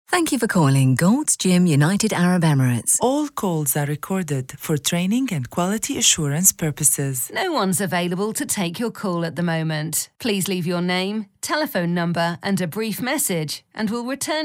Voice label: English IVR; IVR showreel; AUDIO POST Production